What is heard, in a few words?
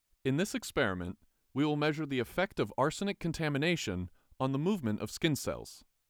Scratch Assay, Voiceover